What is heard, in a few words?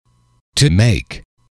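"to make", English, male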